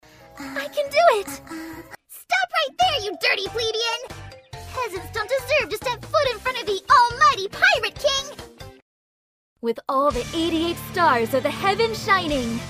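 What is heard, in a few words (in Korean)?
라오스어 차분/편안; Voice actor sample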